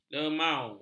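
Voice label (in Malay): "lemau", Malay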